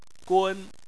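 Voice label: "gun", Chinese, first tone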